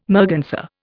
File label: "merganser", Indian